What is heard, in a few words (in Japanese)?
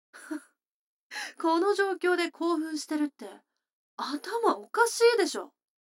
女の子台詞, ASMR